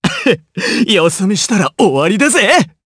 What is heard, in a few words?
Vox Skill jp; Kibera; kings raid